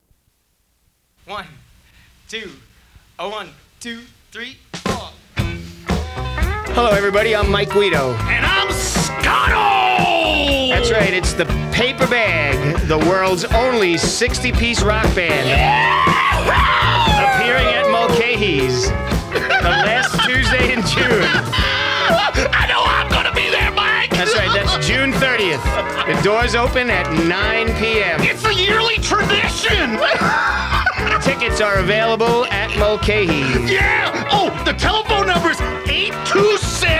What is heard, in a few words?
radio commercial